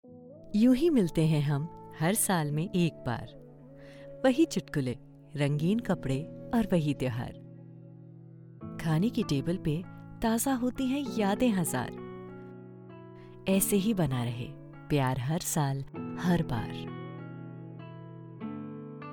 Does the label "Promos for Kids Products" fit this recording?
No